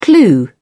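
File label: "clue", English, British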